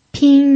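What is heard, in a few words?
"pin", pinyin, tone 1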